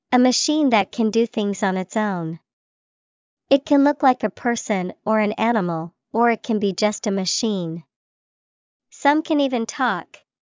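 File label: robot, English vocabulary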